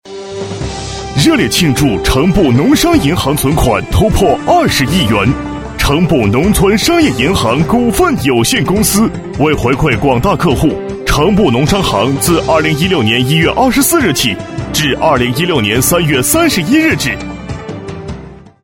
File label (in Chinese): 男 广告 激情大气; 城步农商银行